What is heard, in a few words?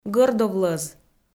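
"гӧрдовлӧз", Komi, female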